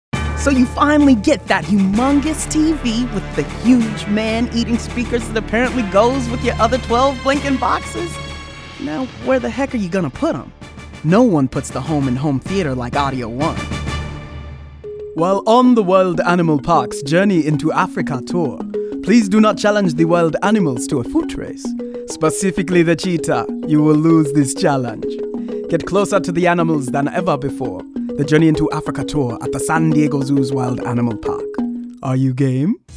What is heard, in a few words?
commercial, men